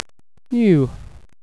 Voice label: "new", uk

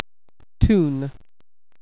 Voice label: "tune", USA

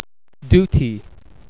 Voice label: "duty", USA

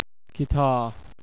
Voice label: "guitar", UK

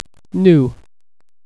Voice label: "new", USA

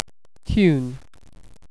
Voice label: "tune", UK

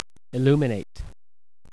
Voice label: "illum", usa

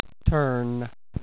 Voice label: "turn", USA

